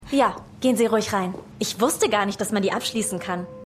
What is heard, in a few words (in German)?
Empfangsdame; Law & Order